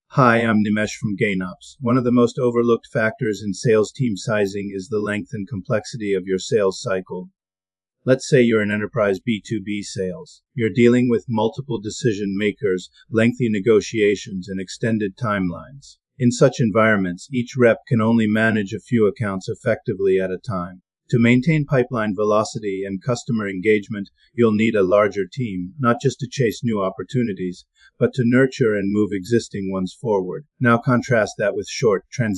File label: narrative script